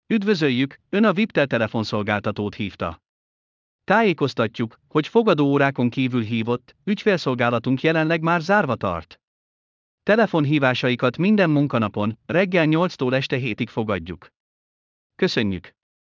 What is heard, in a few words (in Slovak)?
madarsky